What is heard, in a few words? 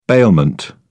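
"bailment", British English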